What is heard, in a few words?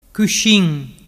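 "cuscinciumassu", Vernazzese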